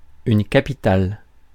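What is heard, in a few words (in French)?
"une capitale", French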